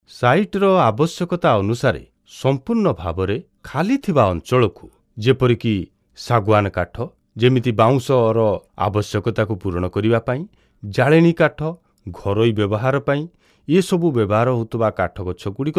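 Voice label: Odia M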